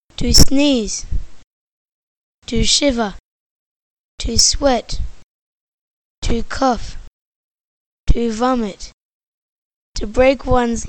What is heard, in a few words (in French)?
anglais health; vocabulaire